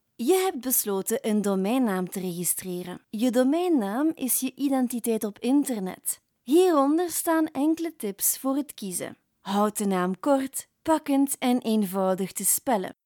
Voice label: Flemish CORPORATE